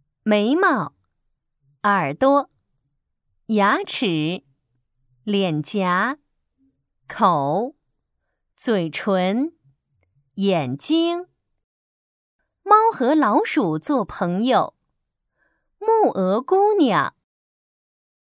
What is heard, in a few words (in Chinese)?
培训课件 教材中文, 女